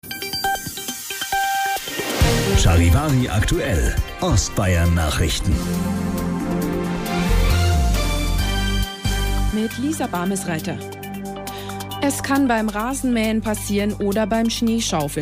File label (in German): Radio Telefonreanimation; Radio Charivari